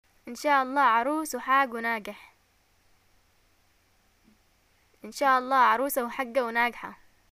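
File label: "greeting eid", Yemeni Arabic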